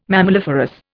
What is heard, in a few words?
"mammaliferous", Indian